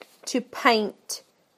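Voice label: "to paint", British English; PictureDictionary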